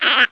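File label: goblin ambient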